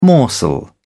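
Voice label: "morsel", British English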